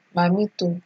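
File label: "mamitto", Nigerian Pidgin, female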